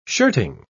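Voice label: "shirting", British English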